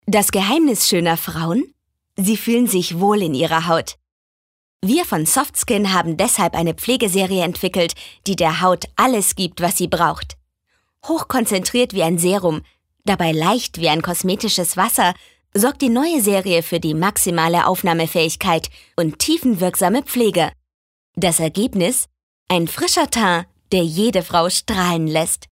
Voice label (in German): W Soft Skin Freundlich